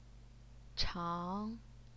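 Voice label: "chang", Mandarin Chinese, second tone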